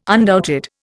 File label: "undoubted", Indian